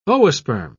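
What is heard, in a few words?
"oosperm", British English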